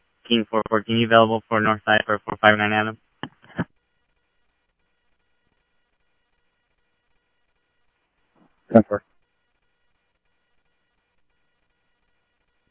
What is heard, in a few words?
Tempe Police, scanner audio